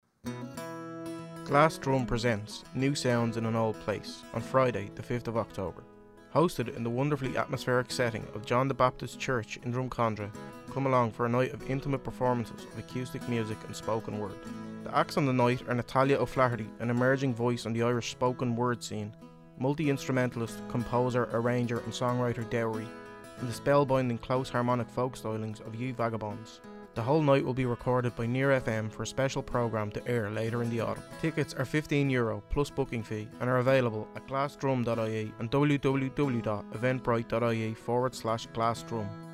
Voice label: Promo